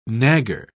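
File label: "nagger", British English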